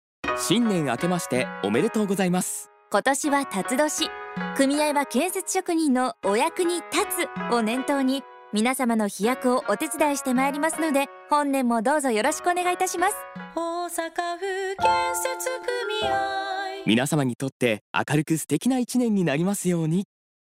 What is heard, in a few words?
radio; osaka